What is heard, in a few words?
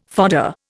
"fodder", Indian